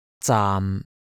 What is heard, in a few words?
"zaam6", cantonese